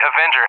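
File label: "Avenger", English; AirDefence; DCS; Allied Flight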